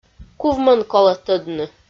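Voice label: "кувмӧн колӧ тӧдны", Komi, female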